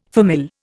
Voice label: "famille", Indian